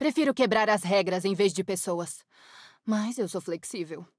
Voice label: vo, pt-br; rell